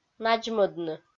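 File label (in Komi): "надзмӧдны", Komi, female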